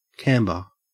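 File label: "camber" English, Australian accent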